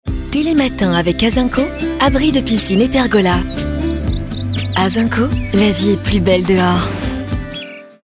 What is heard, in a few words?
french animation